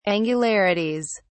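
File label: "angularities", British English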